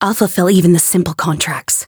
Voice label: chant; felmina

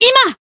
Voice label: attack, jp; buria

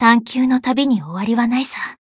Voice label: win, jp; isuka

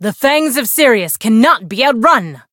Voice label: chant

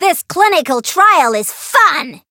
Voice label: Voice chant

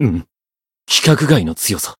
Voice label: encount fear, jp

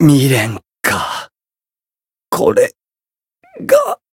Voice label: retire, jp

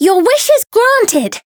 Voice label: Voice variable chant; myungwha

